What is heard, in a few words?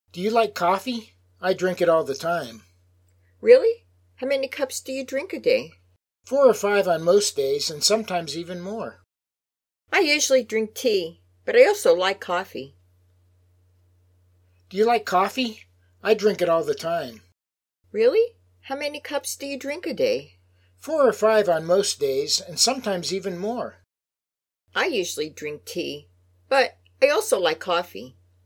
Natural Dialog; Lesson 3